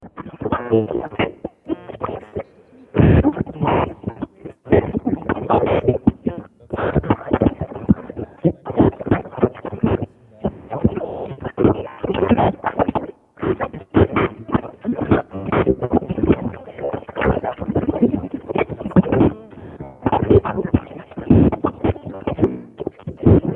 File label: Garbled Speech